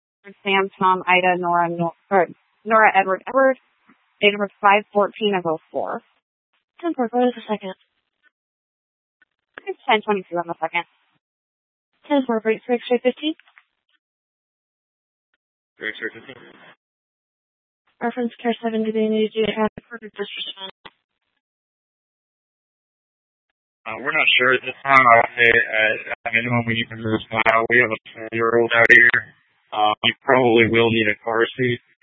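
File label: Tempe police department, scanner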